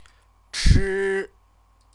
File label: "chi", Chinese